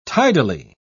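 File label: "tidily", British English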